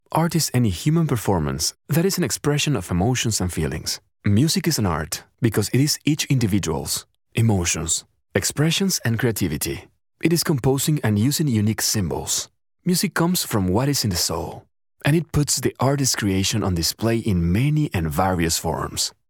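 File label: M, Spanish, Latin American, male, acc eng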